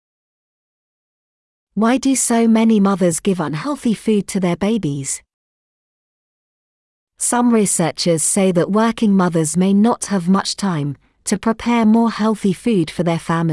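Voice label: french fries, British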